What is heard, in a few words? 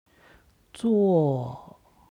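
"zuo", Chinese; 坐; 座る; HSK Level One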